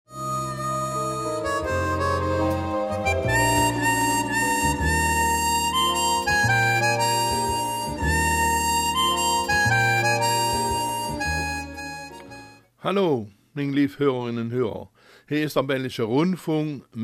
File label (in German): Raerener Mundartsendung; Rückblick auf 66 Jahre Raerener Karneval